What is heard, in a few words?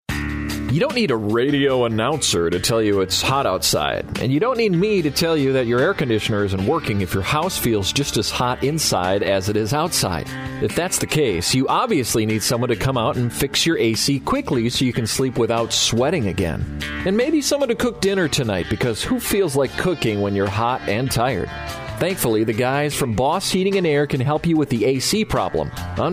voiceover